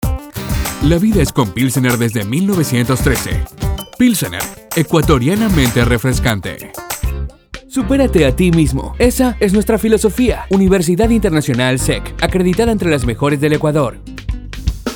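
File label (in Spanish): Publicidad Latin Spanish Español Neutro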